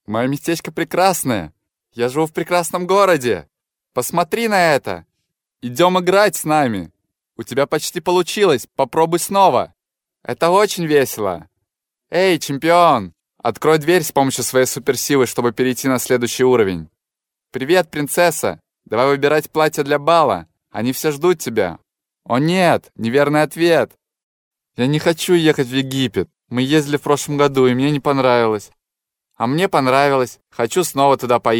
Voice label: Male Russian Toys